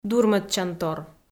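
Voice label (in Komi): "дурмӧдчантор", Komi, female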